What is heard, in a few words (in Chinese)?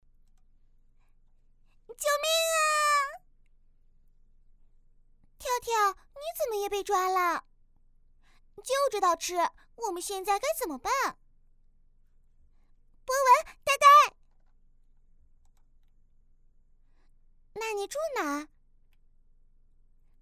男女童 角色